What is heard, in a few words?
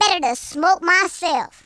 kamikaze, Gangsta; speech